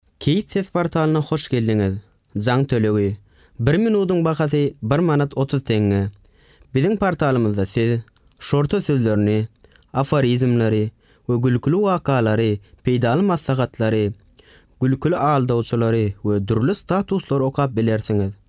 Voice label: Turkmen Male